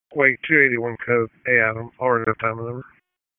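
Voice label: Sheriff's Office Dispatch; WSO DISP 1; wake; p25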